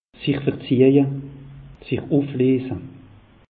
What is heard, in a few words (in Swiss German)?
"sich verzeije", alsace; meteo